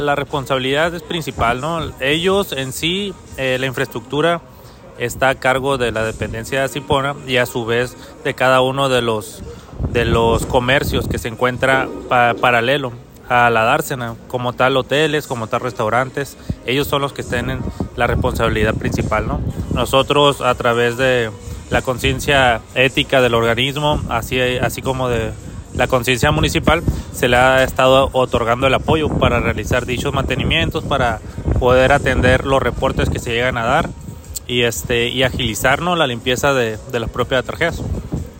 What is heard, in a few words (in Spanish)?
RADIO INSERT